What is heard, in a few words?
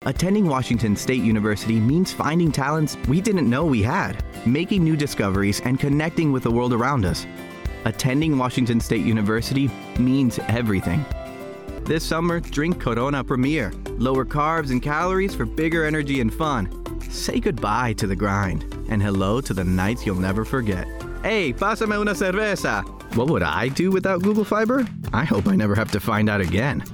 men commercial